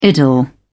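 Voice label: "idyll", English, British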